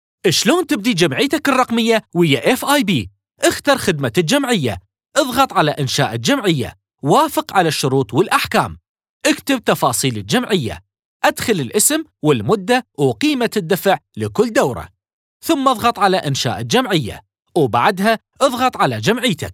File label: iraqi, iraqi voiceover